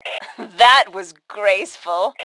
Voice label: Taunt Sarcasm, Female; T2 Female 01